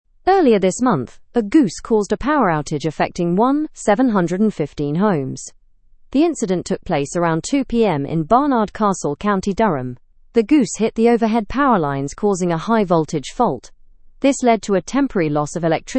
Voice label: learn english through news lower intermediate fast; goose knocks out power to homes